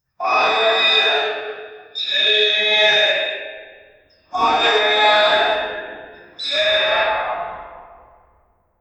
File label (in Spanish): alguien con voz de minero, cave